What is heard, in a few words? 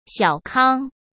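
"小康" Mandarin Chinese; chinese-flashcards; hsk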